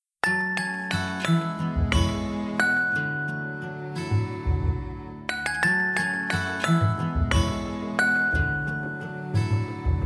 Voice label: BOAS VINDAS GERAL - F; Telemensagens 2017; Despedida Boas Vindas

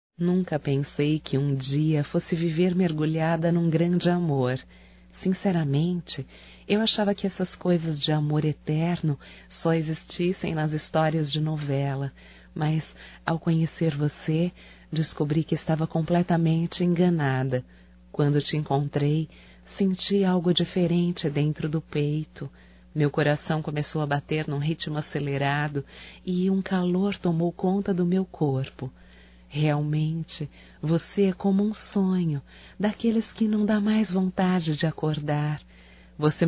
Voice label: ROMANTICA(F), Românticas, fem

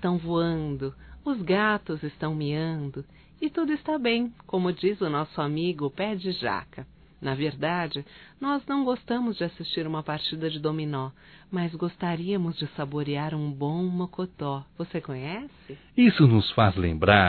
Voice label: BRINDE AMIZADE GOZACAO DUAS VOZES, Só Voz; Telemensagens; masc

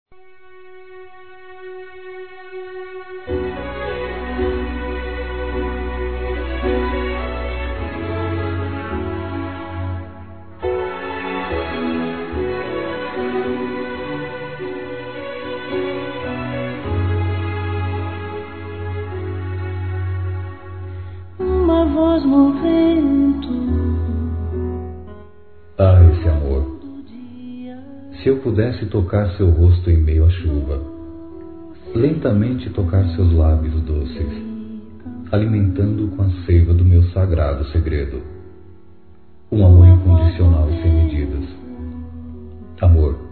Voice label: Rencontro Com Ex Masculino; Telemensagens; Dia Dos namorados; Telemensagens 2017

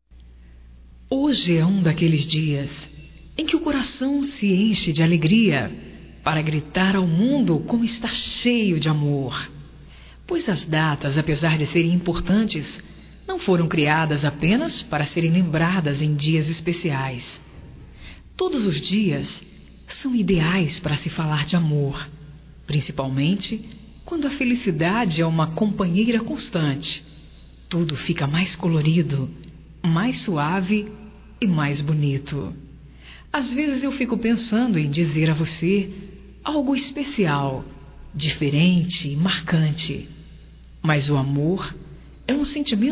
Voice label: NAMORO, Telemensagens, Aniversarios, fem; Telemensagens 2017

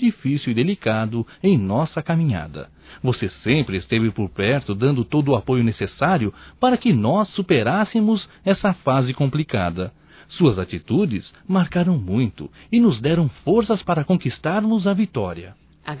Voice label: AMIZADE AMIGA DUAS VOZES; Amizades; fem; Telemensagens 2017

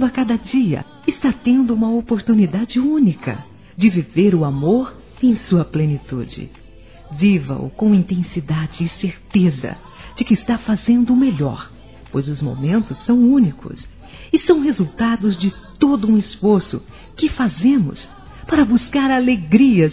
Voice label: AMIGA P MULHER MÃE F; Telemensagens 2017

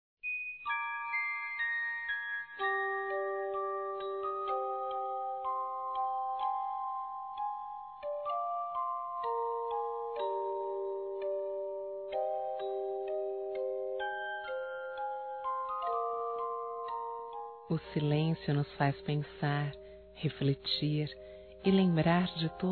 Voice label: BOA NOITE ROMANTICO, fem; Bom dia boa tarde boa noite

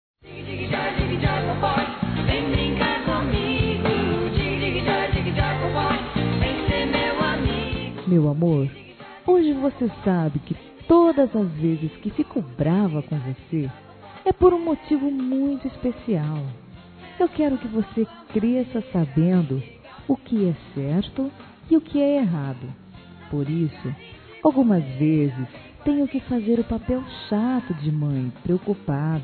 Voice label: Filha Criança Feminino, Telemensagens Aniversário; Aniversários; Aniv Familiar

